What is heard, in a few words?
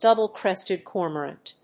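"double-crested cormorant", English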